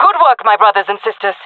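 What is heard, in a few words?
round winning, jungle female; vo; Counter Strike Model Pack; CS:GO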